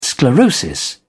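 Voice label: "sclerosis", British English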